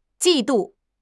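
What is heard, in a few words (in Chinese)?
"季度", Chinese; HSK; HSK6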